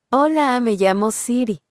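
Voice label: Mexican Spanish; es-MX; iOS Sounds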